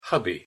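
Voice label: "hubby", English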